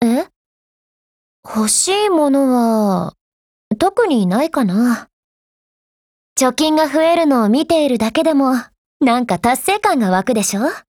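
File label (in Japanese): dialogue, Japanese; Px4Storm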